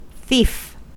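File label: "thief", American English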